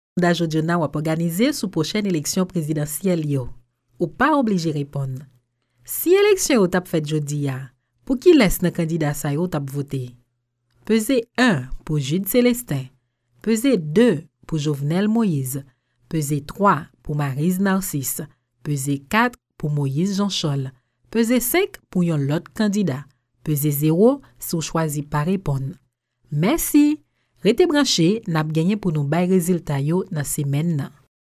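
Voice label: Haitian Creole French, female, voice over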